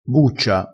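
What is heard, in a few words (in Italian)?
"buccia", vocabolario dialettale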